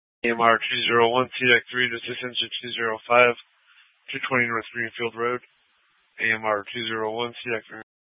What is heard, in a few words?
FD Dpts VHF 156.240 Patch